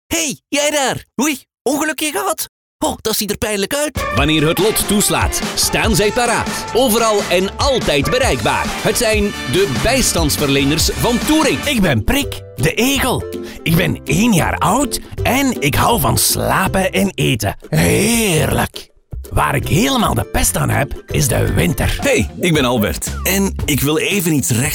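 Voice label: Flemish male Characters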